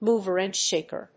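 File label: "mover and shaker", English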